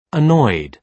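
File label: "annoyed", British English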